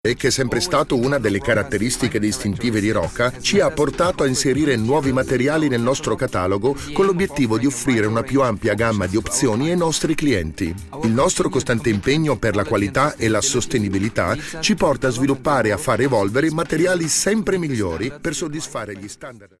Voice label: male italian comercial; ideasonora

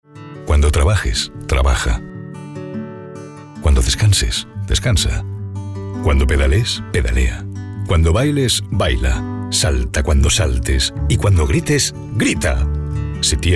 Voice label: male spanish car comercial; ideasonora

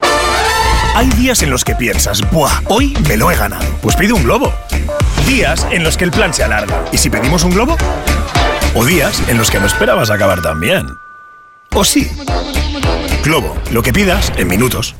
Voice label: spanish food comercial; ideasonora

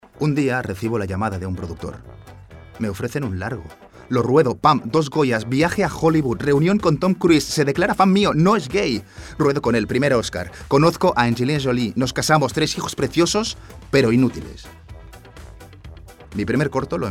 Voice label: male spanish festival comercial; ideasonora

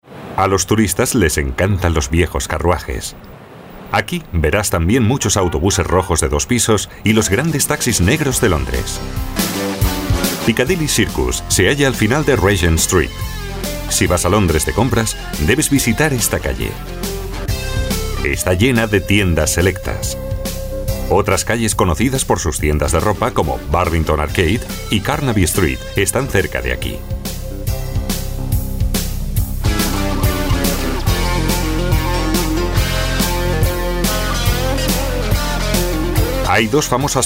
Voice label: male Spanish audioguide; ideasonora

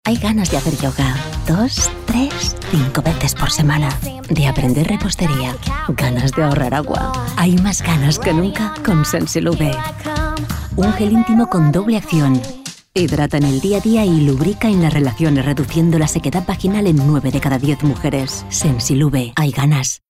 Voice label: female spanish sex comercial; ideasonora

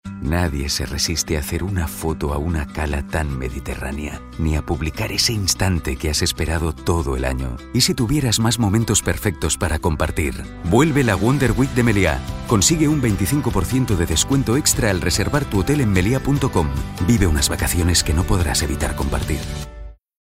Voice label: male spanish hotel comercial; ideasonora

